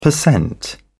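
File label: "percent", British English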